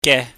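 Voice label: "ge", Cantonese, mid tone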